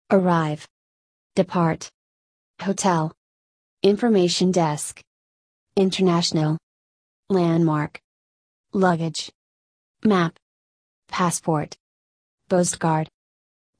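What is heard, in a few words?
American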